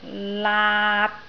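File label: "laat3", Cantonese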